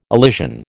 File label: "elision", American